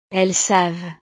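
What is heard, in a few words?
"elles savent", French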